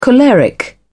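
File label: "choleric", British English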